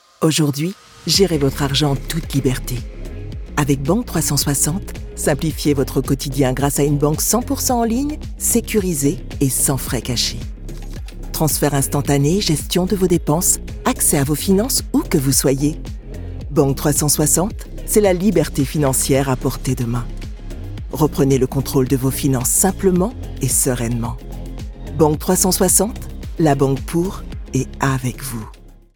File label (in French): pub institutionelle